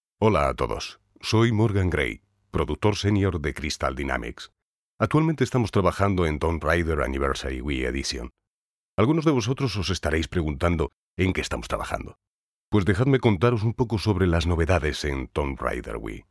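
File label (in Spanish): doblaje España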